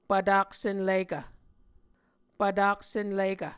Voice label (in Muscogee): "pvtak senlikv", Muscogee